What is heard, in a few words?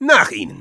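battle cry, German; Nach ihm!; Spy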